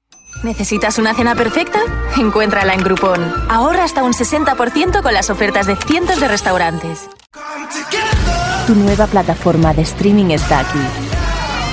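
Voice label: ESEU Commercial, Spanish (Spain)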